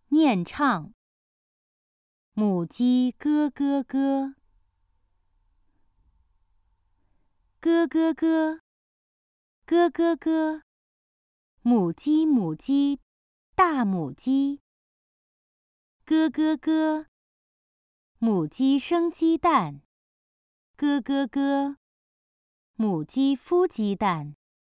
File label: Chant, Life of a Chicken; Chinese Treasure Chest; Animals